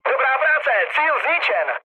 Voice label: bomb success, speech cze, mood low; War Thunder

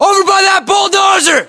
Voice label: landmark near bulldozer, english, us; localized english; battlechatter

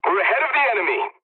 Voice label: pilot tickets lead start ally, english; War Thunder

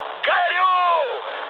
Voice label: on fire, mood med, speech ru; War Thunder

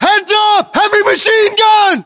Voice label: US threat mg, battlechatter, english

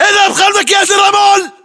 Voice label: order cover sandbags, battle chatter, english

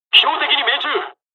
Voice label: japanese, dialogs japanese

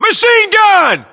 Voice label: US threat mg, battlechatter, english

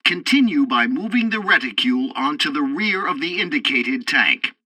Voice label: tutorial tank arcade second target fire, english; War Thunder

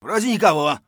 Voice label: clear, voice; Escape from Tarkov; scav1

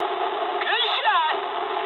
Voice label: eng player killed enemy mood low, speech eng

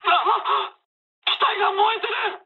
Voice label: on fire mood high, speech jp; War Thunder

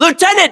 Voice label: US rank lieutenant, battlechatter, english

